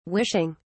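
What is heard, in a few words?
"wishing", English, British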